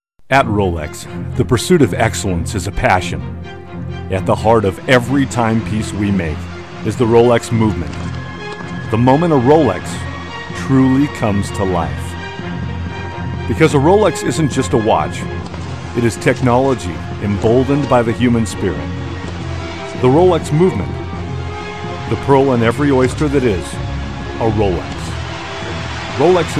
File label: audio commercial